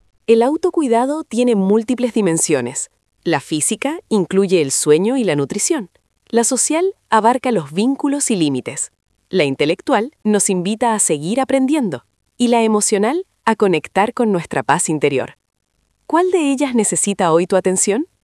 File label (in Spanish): locucion